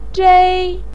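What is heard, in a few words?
"zhei" first tone, Mandarin Chinese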